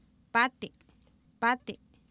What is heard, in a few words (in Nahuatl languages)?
"paati", Oapan, female; Oapan minimal pairs 1998